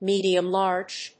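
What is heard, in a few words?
"medium large", English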